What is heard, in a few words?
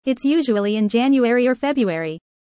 fast; four seasons